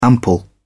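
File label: "ample", British English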